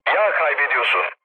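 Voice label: damaged oil, mood low, Turkish; speech; War Thunder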